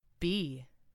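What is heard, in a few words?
"bee", English; FoodChain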